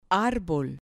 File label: "arbol", Spanish